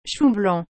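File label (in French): "chou blanc", French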